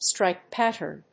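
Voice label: "stripe-pattern", English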